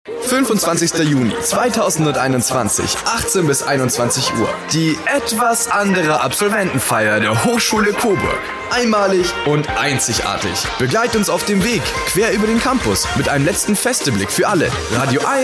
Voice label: german commercial